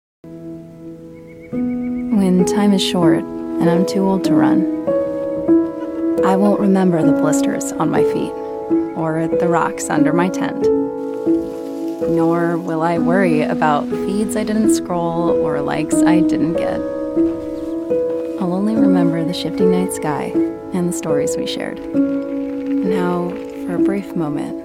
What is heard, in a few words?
english american